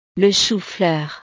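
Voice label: "le chou fleur", French; verduras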